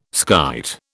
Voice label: "skite", British

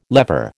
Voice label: "lever", American

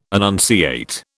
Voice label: "annunciate", British

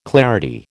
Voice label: "clarity", American

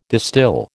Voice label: "distil", American

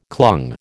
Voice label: "clung", American

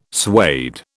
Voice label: "suede", British

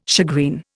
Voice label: "shagreen", Indian

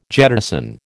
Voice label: "jettison", American

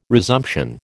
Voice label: "resumption", American

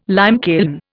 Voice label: "limekiln", Indian

